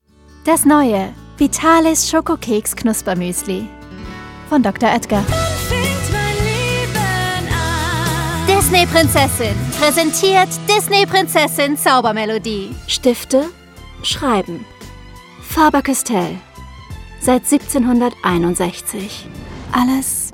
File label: German